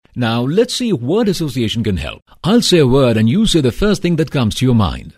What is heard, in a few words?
english, male voice